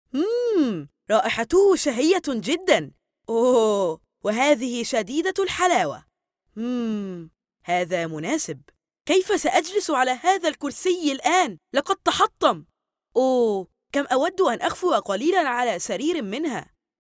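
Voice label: Arabic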